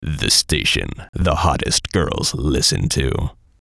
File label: VOICE COMICAL; The Station The Hottest; Funny Sound; Radio Voice; Production Element, Imaging Element, Accent, Transition; Blastwave